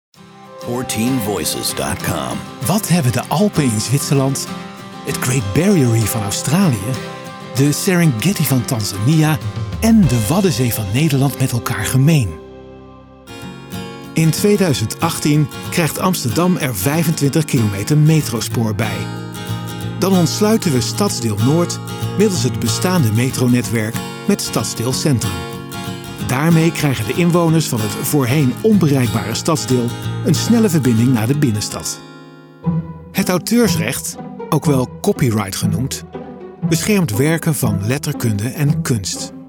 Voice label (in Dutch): Narratief